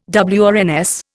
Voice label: "WRNS", Indian